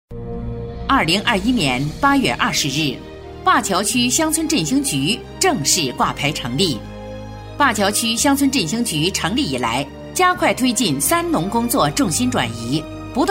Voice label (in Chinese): 女, 工作汇报, 乡村振兴局, 稳重正式; 政府机关专题